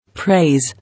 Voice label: "Praise", English

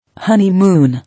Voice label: "Honeymoon", English

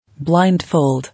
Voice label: "Blindfold", English

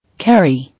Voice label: "Carry", English

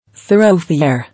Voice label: "Thoroughfare", English

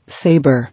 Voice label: "Sabre", English

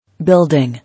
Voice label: "Building", English

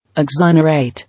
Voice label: "Exonerate", English

